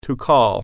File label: "call", English